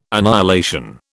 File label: "annihilation", British